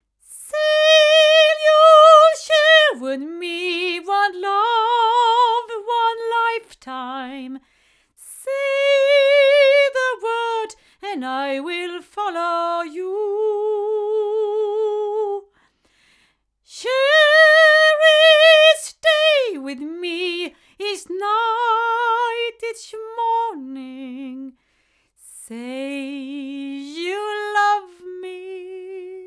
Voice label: MT87S female; TSM